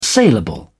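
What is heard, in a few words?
"saleable", British English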